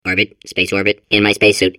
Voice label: babble; Portal 2